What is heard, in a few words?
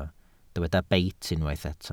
"beit", Welsh